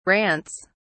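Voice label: "rants", British English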